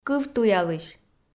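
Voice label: "кывтуялысь" Komi, female; kpv audio dict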